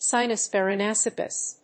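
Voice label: "sinus paranasales", English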